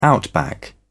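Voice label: "outback", British English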